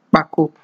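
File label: "kpako", Nigerian Pidgin, male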